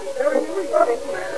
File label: anybody here, EVP